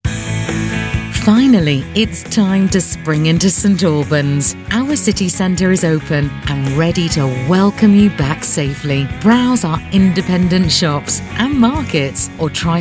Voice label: female; St Albans spring into city centre open